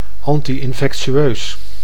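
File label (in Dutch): "anti-infectieus", Dutch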